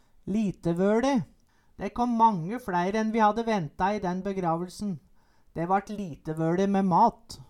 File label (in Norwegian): litevoLe, Numedalsmål; LitevøLi er og brukja.